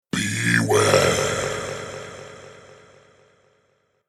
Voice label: "Beware" Spoken, The word ‘Beware’ spoken in deep haunting voice with added delay.; Exclamations